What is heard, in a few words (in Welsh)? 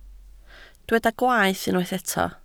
"gwaeth" Welsh